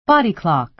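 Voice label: "body clock", American English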